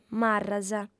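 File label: "marras", female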